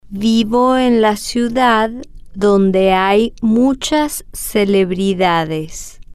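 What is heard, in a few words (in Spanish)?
vivo en la ciudad donde, Spanish, female, slow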